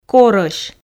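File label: "корӧсь", Komi, female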